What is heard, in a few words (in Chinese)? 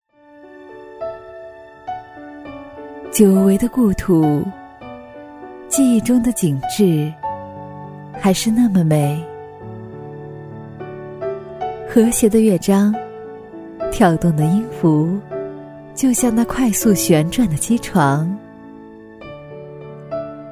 女 城市宣传 亲和 温柔 磁性; 马鞍山博望